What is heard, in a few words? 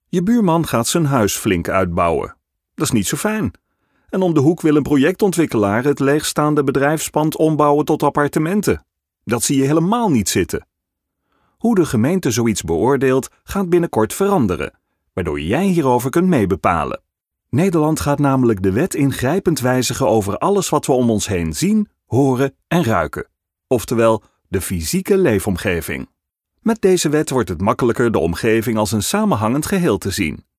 vo young and upbeat